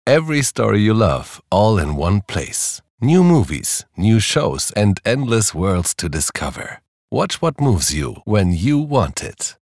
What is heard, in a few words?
male German English with soft European accent commercial; cast